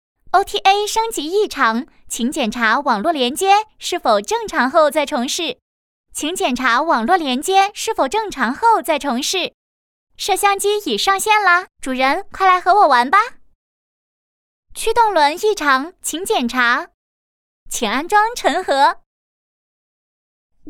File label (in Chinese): 女 语音 少女音 智能